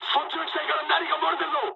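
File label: Japanese tank damaged mood high; War Thunder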